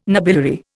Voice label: "nobiliary", Indian